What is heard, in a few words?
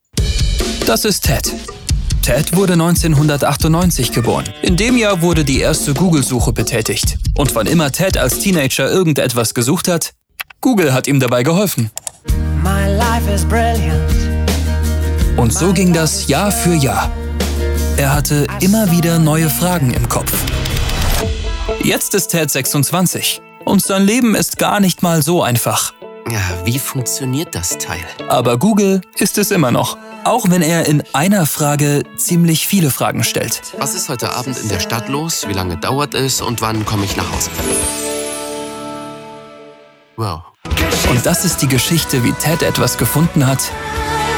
Social Spot, Commercial (Werbung), German; Werbung Google Kampagne